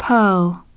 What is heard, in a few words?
"pearl", English